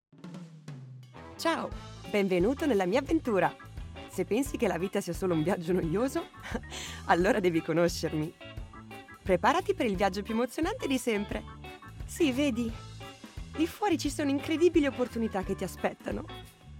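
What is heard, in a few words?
Italian, Character; GoLocalise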